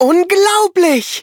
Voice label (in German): Cookie victory, de; cookie run